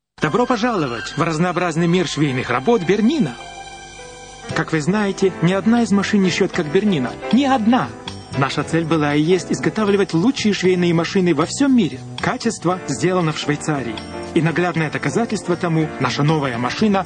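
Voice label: Russian, male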